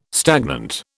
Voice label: "stagnant", British